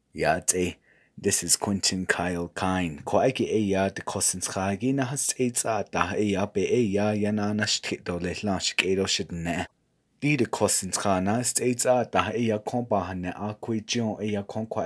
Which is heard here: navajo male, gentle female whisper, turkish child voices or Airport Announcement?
navajo male